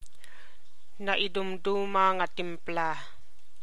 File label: Ilocano